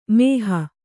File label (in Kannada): "ಮೋಹ", kannada